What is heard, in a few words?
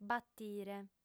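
"batire", female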